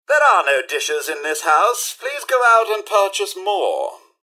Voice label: idle chatter; mrhandy